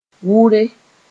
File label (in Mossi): "wʋre", moore; cut (grass)